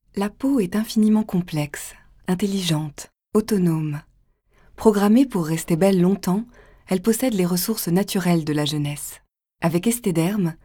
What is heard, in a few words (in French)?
publicité; Esthederm